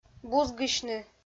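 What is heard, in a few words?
"бузгысьны", Komi, female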